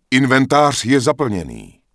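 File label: Human Male error not a bag, Czech; wowpreklad; czwow; MaleErrorMessages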